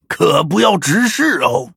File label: Male Dryad Start; zh